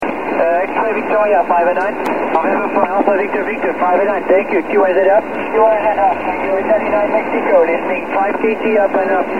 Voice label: 24 ssb